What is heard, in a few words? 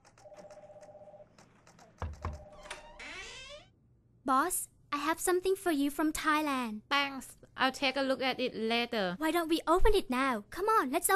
Radio Spot